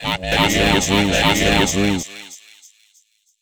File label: VOICEFX TEKNO 140, Techno, Voice